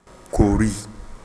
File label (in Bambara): "kori", Bambara